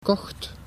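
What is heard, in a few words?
"kocht", Dutch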